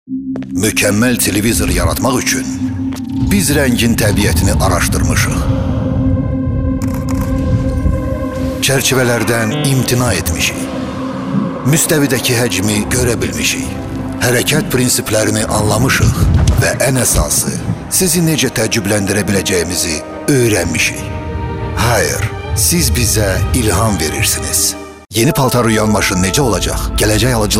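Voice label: Azerbaijani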